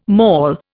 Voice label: "maul", Indian

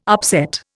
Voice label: "upset", Indian

